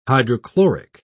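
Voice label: "hydrochloric", British English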